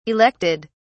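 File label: "elected", British English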